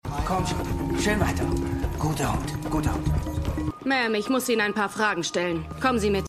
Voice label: Polizist Polizistin; New Amsterdam

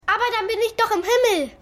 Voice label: Junge; Keine Gnade Fuer Dad

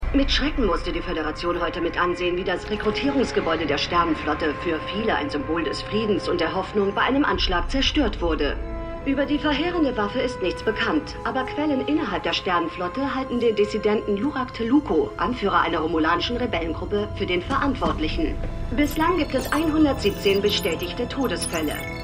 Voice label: Nachrichtensprecherin; Star Trek Picard

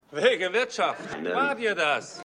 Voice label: Mann Auf Versammlung; Mystery New York

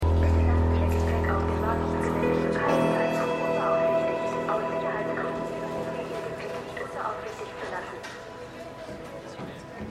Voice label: Durchsage; Moskitokueste

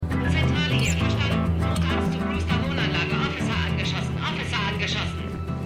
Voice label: Funkstimme Zentrale, Stimmproben

